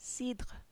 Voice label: "cidre", French

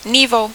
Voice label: "niveau", French

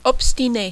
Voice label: "obstiner", French

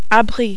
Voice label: "abri", French

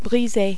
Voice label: "briser", French

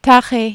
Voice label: "tare", French

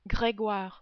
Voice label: "Gregoire", French

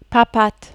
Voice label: "papatte", French

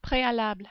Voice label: "prealable", French

